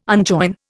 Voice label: "unjoin", Indian